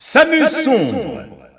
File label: French Announcer; Dark Samus; SSBU